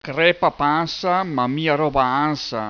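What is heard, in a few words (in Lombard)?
Crepa pansa, ma mia roba ansa., bergamasco; PROVERBI BERGAMASCHI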